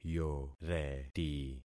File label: "yoreti", concat syllables; Affixing experiment